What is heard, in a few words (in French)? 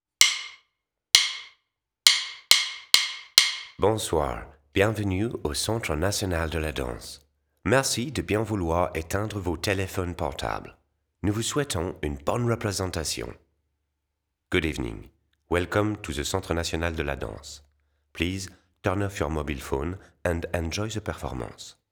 annonce spectacle